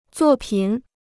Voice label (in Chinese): "作品", Chinese